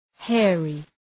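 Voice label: "hairy", English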